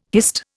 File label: "hist", Indian